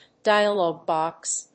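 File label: "Dialog Box", English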